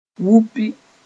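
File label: "wupi", Mooré; just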